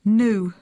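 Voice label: "new", US English; poitiers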